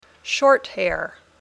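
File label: "short hair", English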